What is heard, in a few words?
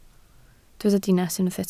"dinas", Welsh